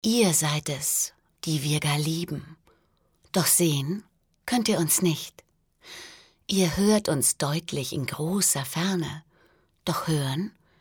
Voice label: poetisch, frauen

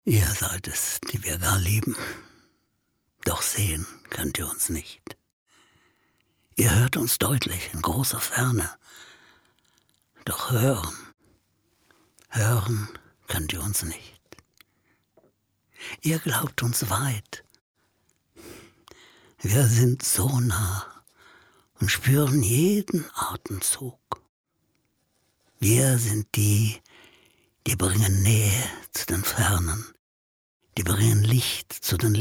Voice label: traurig

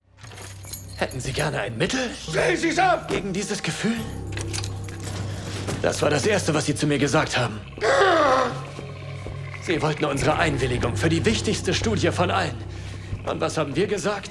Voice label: Synchron, maenner; Der Spinnenmann

